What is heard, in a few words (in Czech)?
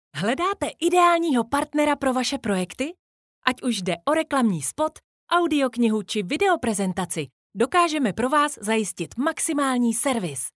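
komercni vesely, Komerční voiceover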